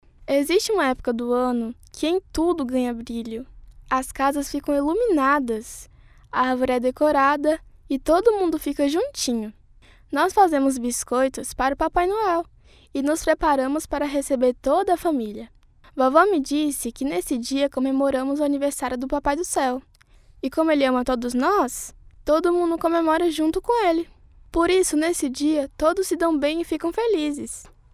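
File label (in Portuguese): Contando Histórias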